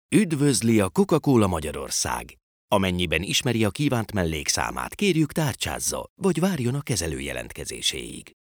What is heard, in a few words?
hungarian ivr